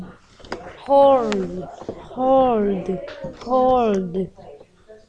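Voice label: "hold", spoken word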